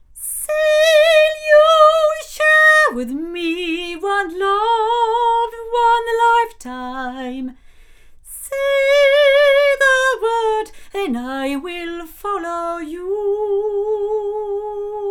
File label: omni female, microphones; Lauten; Clarion